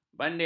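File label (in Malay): "bandela", Malay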